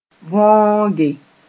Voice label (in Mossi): "võoge", moore